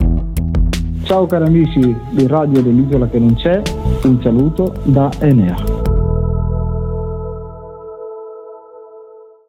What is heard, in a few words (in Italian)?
jingle saluto; ENEA